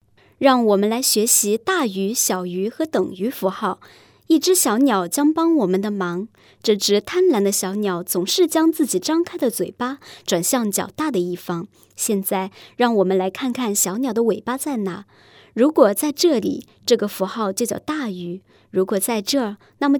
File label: chinese female; dictor; dictor-sounds